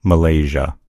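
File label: "malaysia", English; gcompris; geography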